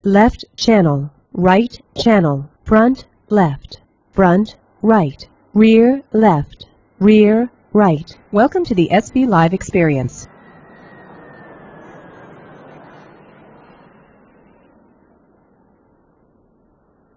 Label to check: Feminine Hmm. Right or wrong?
Wrong